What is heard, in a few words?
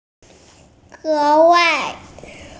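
"ge2wai4", Mandarin Chinese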